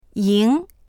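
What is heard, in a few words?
"ying2", Mandarin Chinese; 赢; 勝つ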